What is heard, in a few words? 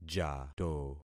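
"jato", concatenated syllables; Affixing experiment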